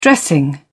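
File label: "dressing", British English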